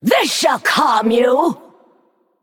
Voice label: Female Naga TRIGGER EARTH SHOCK

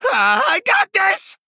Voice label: Male Goblin Attack

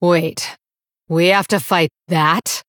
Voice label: Female Blood Elf; Valeera

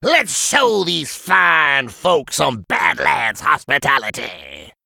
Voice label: Gnome Emote; Sheriff Barrelbrim

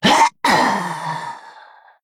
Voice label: Female Mummy (Troll) Death

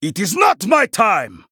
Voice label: Male Draenei Attack

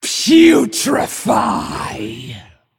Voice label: Female Dragon Attack

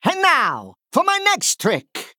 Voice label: Male Goblin

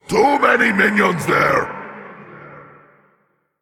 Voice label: Male Elemental Emote ERROR FULL MINIONS

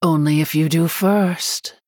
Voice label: Female Human

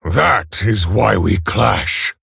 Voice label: Male Orc Ogre

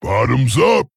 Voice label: Male Tauren Attack

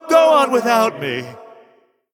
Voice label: Male Human Attack

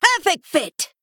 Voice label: Female Worgen Attack

